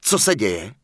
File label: Human Male Standard Greetings; NPC; wowpreklad; czwow